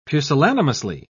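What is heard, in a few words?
"pusillanimously", British English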